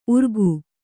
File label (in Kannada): "ಉರ್ಗು", Kannada